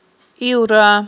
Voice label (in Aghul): "ивра", Aghul